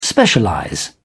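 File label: "specialize", British English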